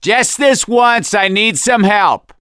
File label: call for rescue, survivor voice; gambler